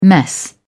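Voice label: "mess", British English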